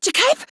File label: Surprised Female; Warframe; Modular Corpus Female; Battle Barks; en